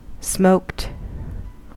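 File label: "smoked", American English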